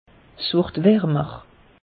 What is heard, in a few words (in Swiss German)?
"warmer", alsace; meteo